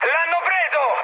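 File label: Italian aircraft destroyed mood high, speech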